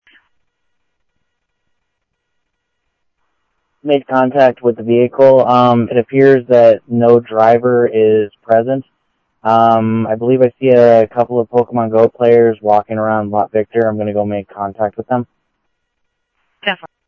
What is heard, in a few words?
Security, scanner audio; Thompson Peak Hi; G-S Security